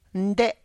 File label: "nde", this One, tlacochahuaya